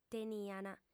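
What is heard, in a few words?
"teniant", female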